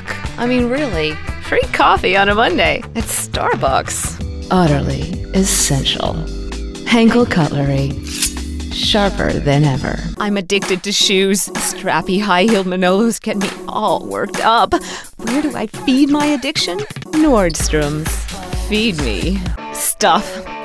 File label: Voice Over